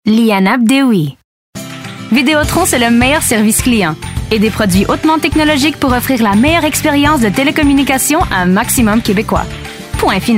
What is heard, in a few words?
Commercial French Canadian